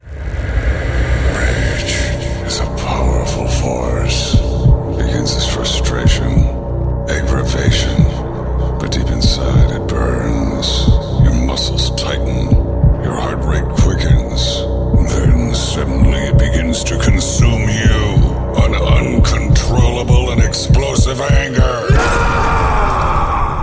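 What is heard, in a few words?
English Canadian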